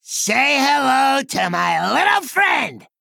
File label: Male Goblin Play